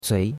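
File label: "cui2", Chinese; Cours-de-Chinois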